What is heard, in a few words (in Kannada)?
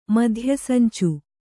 "ಮಧ್ಯಸಂಚು", kannada